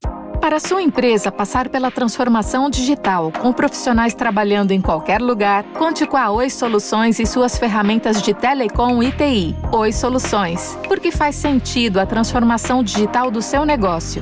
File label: Spot; OI SOLUCOES